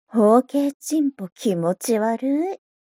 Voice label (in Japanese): mob 女子 台詞